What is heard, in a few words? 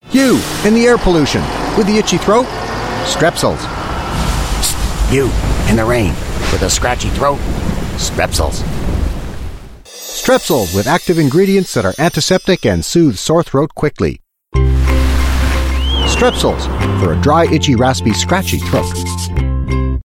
US English; Strepsils